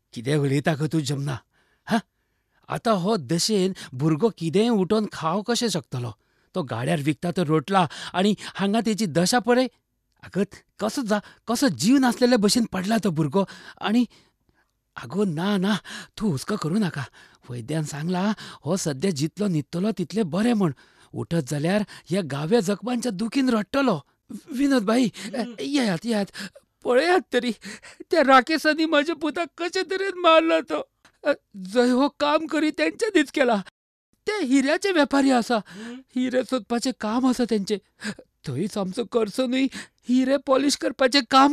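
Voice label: Konkani male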